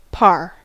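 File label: "par", American English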